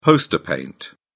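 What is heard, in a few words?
"poster paint", British English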